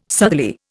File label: "southerly", Indian